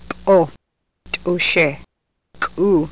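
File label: lakhota, ejektiver; [pʼo ˈt̪ʼuʃɛ kʼu]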